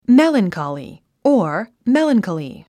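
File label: "melancholy", English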